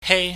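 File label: "hei", Cantonese, high falling tone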